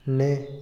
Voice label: "y", Otomí, hombre; INALI

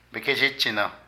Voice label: Llevar en la espalda, Zapoteco de Petapa; Movimiento; Vocabulary

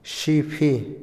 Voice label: estera tapete, Otomí, hombre; INALI

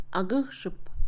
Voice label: Mixe, Mujer; escacez; INALI

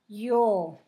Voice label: "lluvia", Zapoteco, San Pedro Martir; Fenómenos; INALI

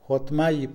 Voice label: "tristeza", Mixe, hombre; Emociones; INALI

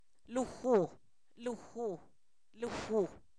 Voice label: Zapoteco, san miguel albarradas; tú; pronombres libres

